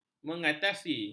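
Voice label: "mengatasi", Malay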